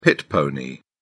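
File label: "pit pony", British English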